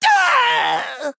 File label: Male Goblin Death